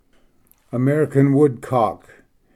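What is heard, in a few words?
"american woodcock", English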